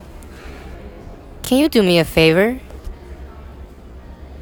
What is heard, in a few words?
Help woman